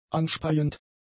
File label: "anspeiend", German; adjectives